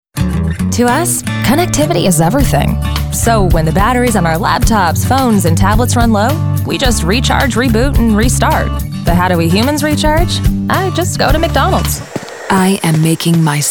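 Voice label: commercial female; commercial women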